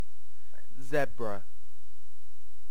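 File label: "zebra", British; uk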